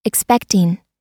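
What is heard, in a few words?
"expecting", American English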